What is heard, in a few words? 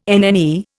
"NNE", Indian